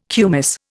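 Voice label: "kumis", Indian English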